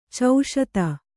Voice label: "ಚೌಶೀತಿ", Kannada

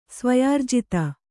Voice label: "ಸ್ವಯಾರ್ಜಿತ", Kannada

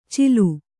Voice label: "ಚಿಲು", Kannada

